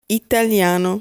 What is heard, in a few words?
"Italiano", Italian